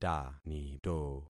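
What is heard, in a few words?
"tanito", concatenated syllables; Affixing experiment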